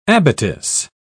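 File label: "abattis", British English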